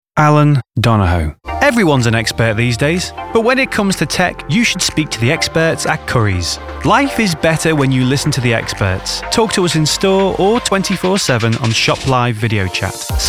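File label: commercial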